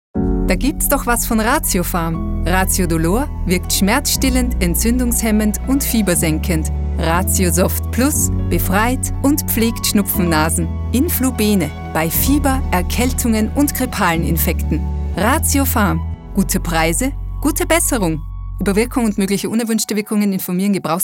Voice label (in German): WERBUNG; Ratiopharm